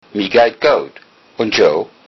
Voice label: im fine, lowsaxon